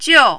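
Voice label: "jiu", Mandarin, fourth tone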